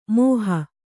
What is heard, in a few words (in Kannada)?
"ಮೋಹ", Kannada